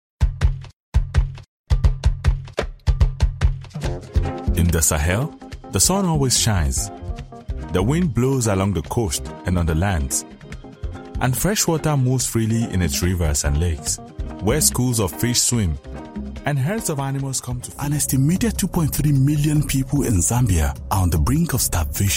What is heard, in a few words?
narration african accent